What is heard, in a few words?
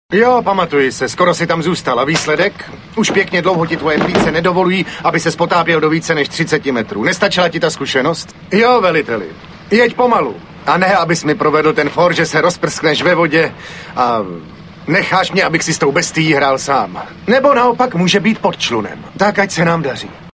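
male, Czech, drama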